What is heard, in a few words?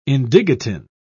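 "indigotin", British English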